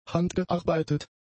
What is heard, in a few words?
"handgearbeitet", German; adjectives